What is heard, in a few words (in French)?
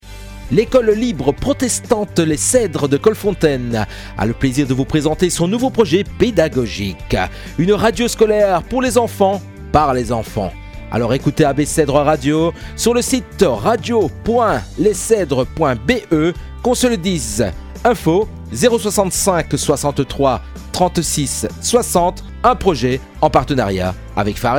bande annonce radio; abcedres